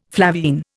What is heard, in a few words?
"flavine", Indian